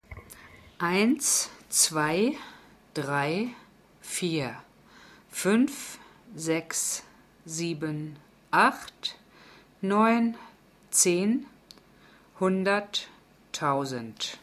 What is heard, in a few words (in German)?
Deutsch